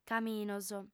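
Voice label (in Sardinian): "caminos", female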